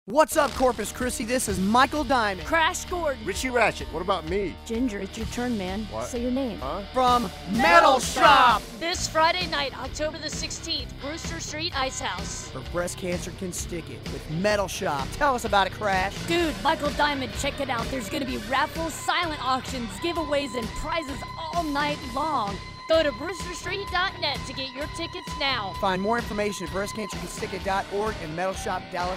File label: promo; corpus christi